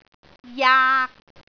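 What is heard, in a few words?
"jaak3", Cantonese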